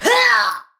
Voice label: Death Ranged, Battle Barks; Tau; Tau Dax

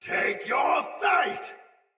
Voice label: Attack Heavy Tech Soldier Sword Agent Male; Battle; Sinecure Melee

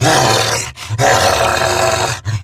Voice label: Attack Grineer Wolf, en; Battle Barks

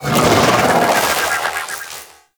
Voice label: Vocal Attack; Warframe; Sentients; Conculyst

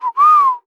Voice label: Horse Stop Walk Adult; Duviri

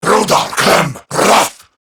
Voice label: Attack, Male; Warframe; Corrupted Grineer Male; Dialog Barks Battle

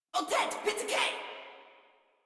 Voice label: Player Spotted Female, Battle Barks; Warframe; Modular Corpus Female

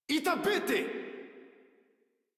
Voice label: Attack, Modular Corpus Male; Warframe

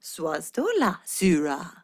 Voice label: Ost Fem en; Cetus

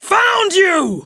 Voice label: Enemy Spotted Female; Warframe; Tau; Prime Grineer Female; Battle

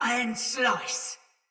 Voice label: Attack Normal Soldier Mace Agent Female; Battle; Sinecure Melee

